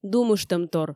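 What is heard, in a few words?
"думыштӧмтор", Komi, female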